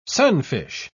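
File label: "sunfish", English, uk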